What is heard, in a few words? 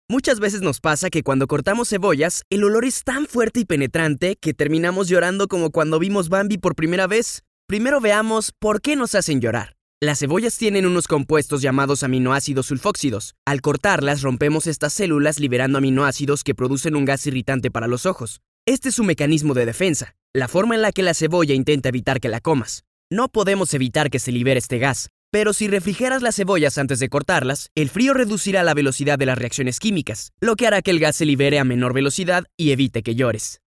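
Spanish, narration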